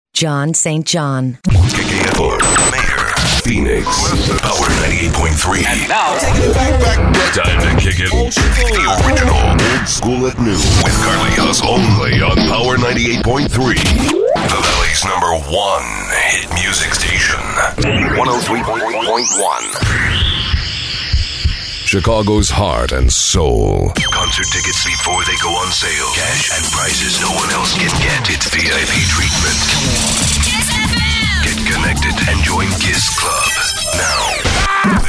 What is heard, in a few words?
Radio Imaging CHR Urban